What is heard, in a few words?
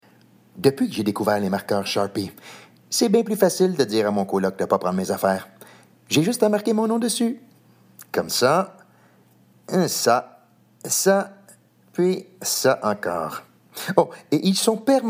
VOICE DEMO